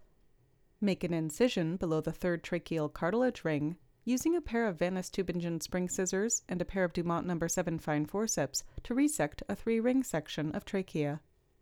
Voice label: Voiceover